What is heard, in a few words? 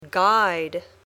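"guide", English word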